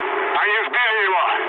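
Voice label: Russian aircraft destroyed mood low, speech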